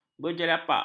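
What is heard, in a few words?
"berjelapak", Malay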